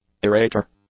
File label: "aerator", English; nouns